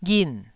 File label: "ghin4", Taiwanese syllable